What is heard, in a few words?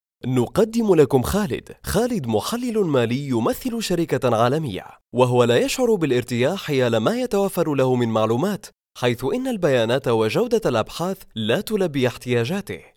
Conversational